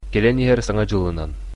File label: new year, sakha